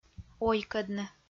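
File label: "ойкӧдны", Komi, female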